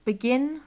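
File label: "begin", British English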